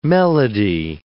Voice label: "melody", English